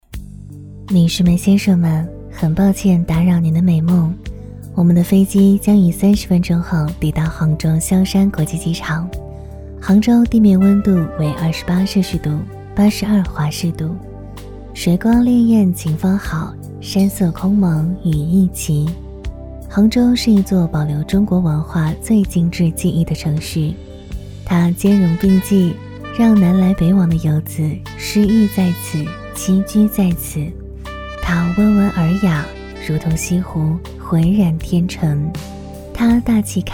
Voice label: 航空播报

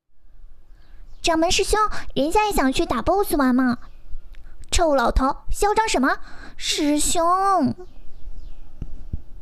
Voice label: 萝莉音

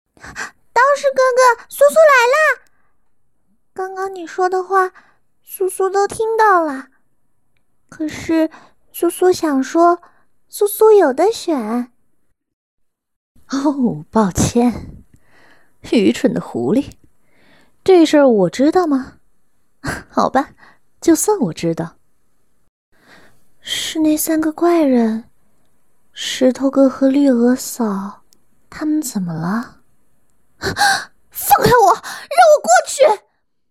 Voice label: 动画角色

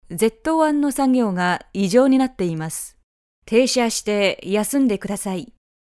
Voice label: 操作指导声线车载

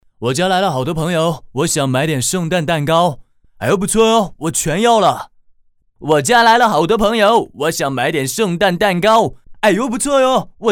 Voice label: 儿童动画; 潮流驴

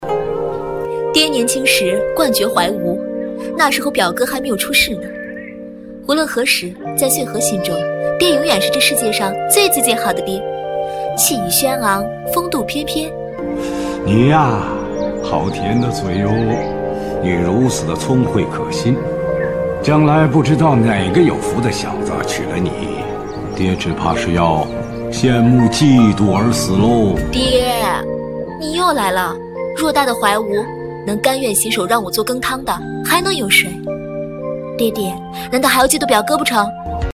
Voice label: 女性角色

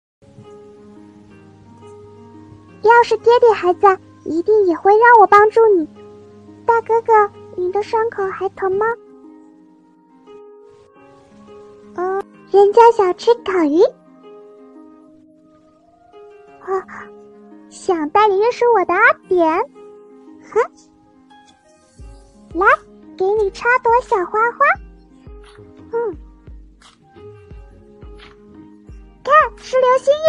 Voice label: 萝莉

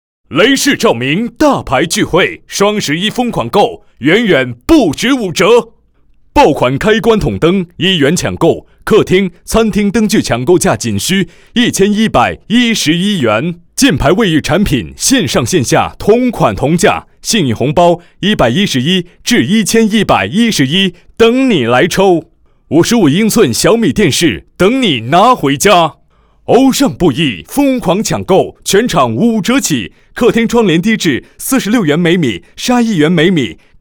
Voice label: 促销 照明 浑厚; 雷士照明

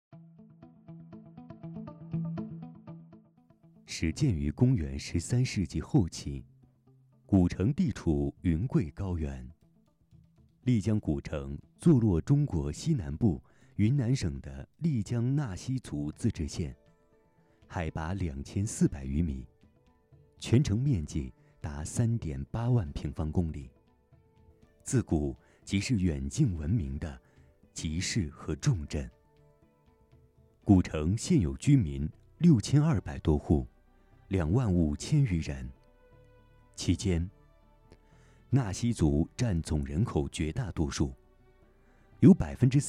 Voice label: 古城 城市 宣传片; 丽江

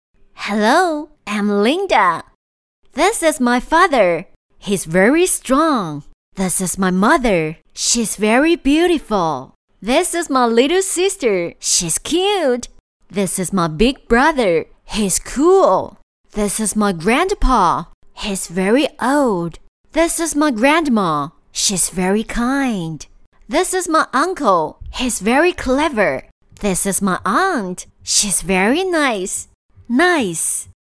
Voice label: 小学英文教材配音

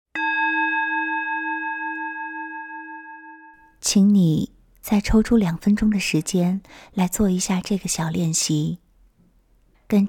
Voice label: 静冥想

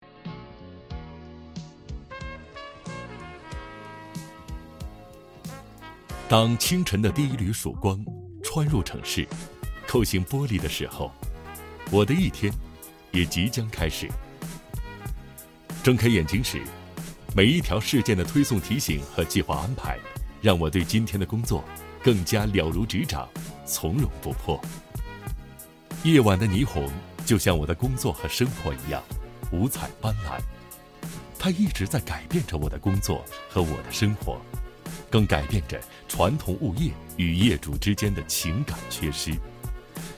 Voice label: 人物独白

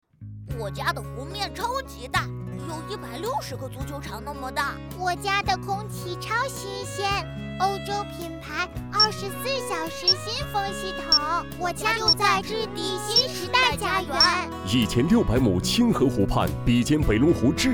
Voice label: 地产 幼儿园 童声版; 新时代幼儿园

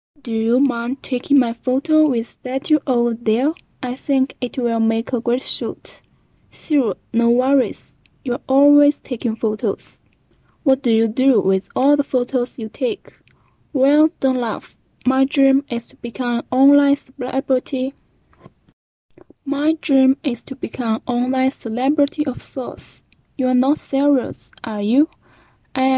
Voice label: 六级录音

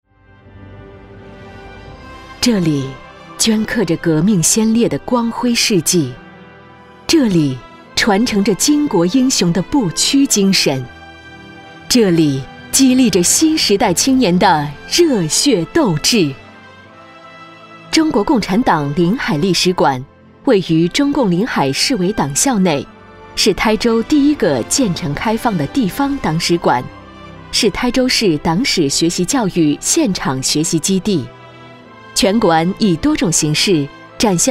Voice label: 专题正式大气; 女性红色教育基地

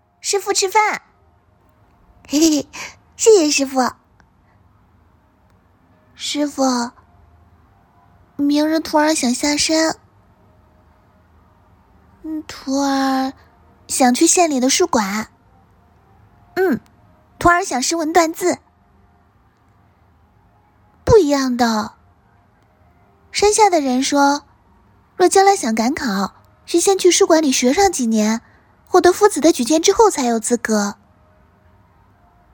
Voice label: 广播剧

